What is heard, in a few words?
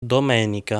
"Domenica", Italian